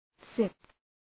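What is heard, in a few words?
"sip", English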